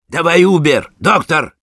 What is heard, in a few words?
Sniper activate charge, ru